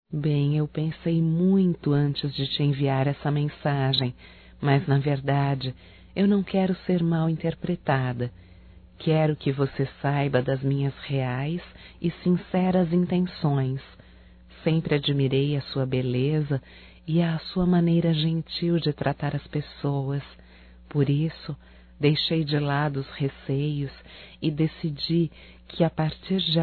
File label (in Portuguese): ROMANTICA PARA VISINHO (F); Românticas